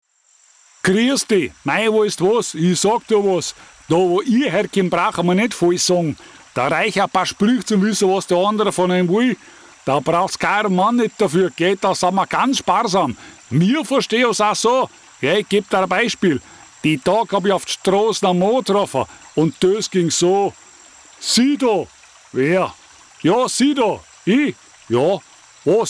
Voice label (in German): Deutsche Dialekte Bayer